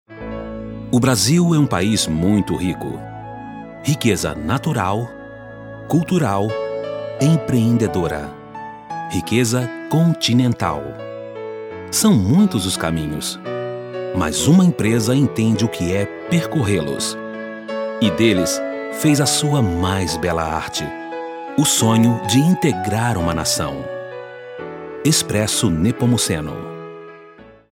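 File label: Brazilian portuguese commercial soft sell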